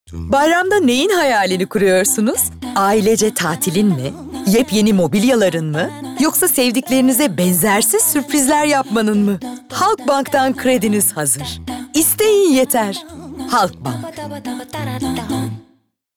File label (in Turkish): Neseli